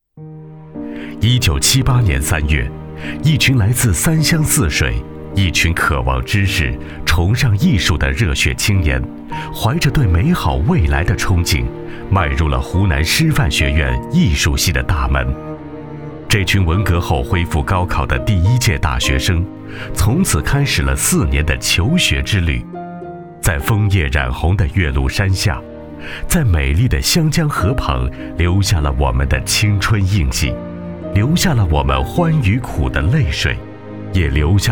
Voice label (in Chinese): 男 专题 人物解说 磁性 厚重 人文 怀念; 同学会